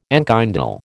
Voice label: "enkindle", American

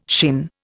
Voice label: "shin", Indian

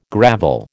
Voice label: "gravel", American

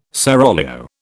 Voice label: "seraglio", British

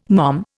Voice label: "mom", Indian

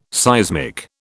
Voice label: "seismic", British

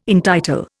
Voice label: "entitle", Indian

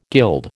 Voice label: "guild", American

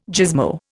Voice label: "gizmo", Indian

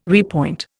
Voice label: "repoint", Indian

